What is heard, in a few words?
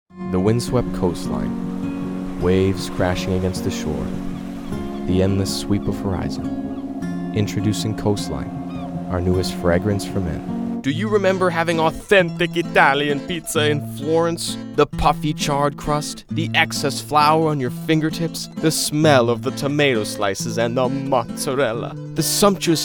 Commercial Sample